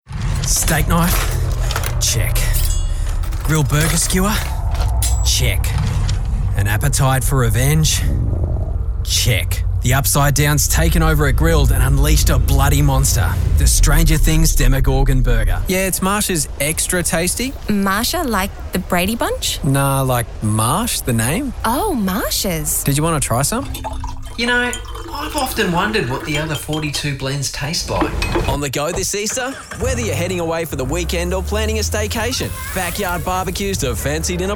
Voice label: AUSSIE Voice